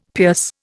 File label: "pierce", Indian; Dictionary